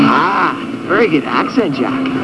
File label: accent